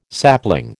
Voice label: "sapling", American

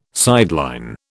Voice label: "sideline", British

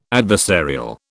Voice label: "adversarial", British

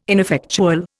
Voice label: "ineffectual", Indian

